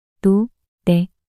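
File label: "노네" Korean, female; 낱소리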